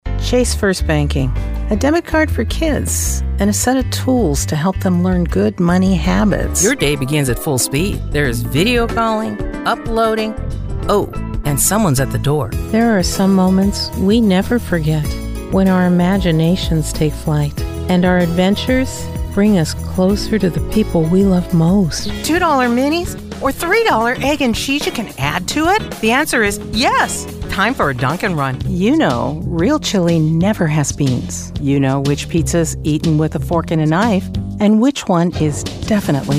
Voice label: Commercial; American female voice actor